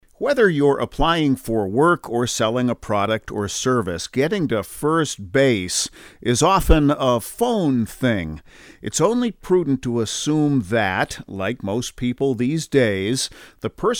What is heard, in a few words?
voicemail